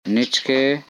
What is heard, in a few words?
"Nichke", Korku; Opened